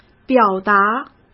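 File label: "表达", Mandarin Chinese; hsk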